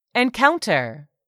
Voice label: "ENCOUNTER", english; 3000 words